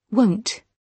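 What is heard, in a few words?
"won't" British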